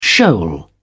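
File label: "shoal", British English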